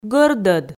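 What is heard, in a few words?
"гӧрдӧд", Komi, female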